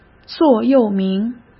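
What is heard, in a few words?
"座右铭", Mandarin Chinese; chinese-flashcards; hsk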